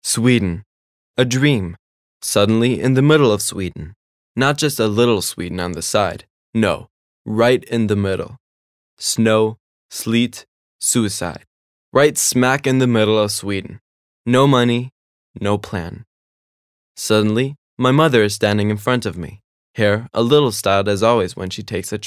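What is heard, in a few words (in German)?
American English, Schauspiel Neutral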